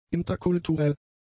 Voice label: "interkulturell", German; adjectives